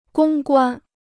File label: "公关", Chinese